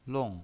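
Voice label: "long", pinyin, Mandarin Chinese, fourth tone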